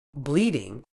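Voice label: "bleeding", British English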